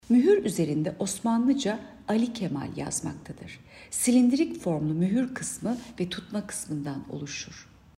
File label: turkce